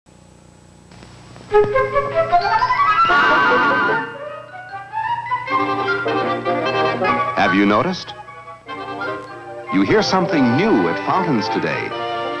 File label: Pepsi Cola Commercial